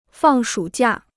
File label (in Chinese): "放暑假", Chinese